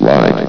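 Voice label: "light", male synthesized announcer voice; blizzard; hlvox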